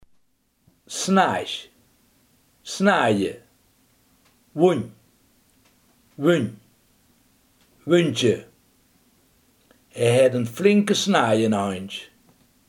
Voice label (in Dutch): "wond", limburgse